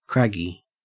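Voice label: "craggy", Australian English; pronunciations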